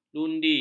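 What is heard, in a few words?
"lundi", Malay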